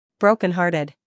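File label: "humor", British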